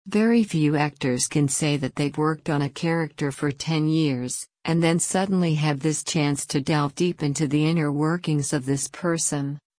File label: dialog speech